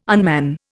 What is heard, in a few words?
"unman", Indian